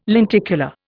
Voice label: "lenticular", Indian